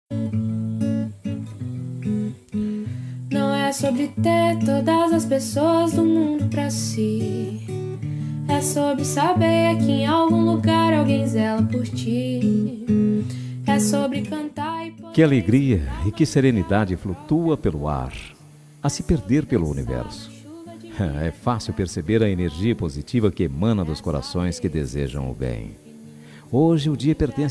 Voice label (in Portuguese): ANIV IRMA, telemensagem aniversario irma